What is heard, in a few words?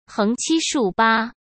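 "横七竖八", Mandarin Chinese; hsk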